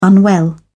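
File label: "unwell", English, British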